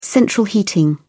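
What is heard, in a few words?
"central heating", British English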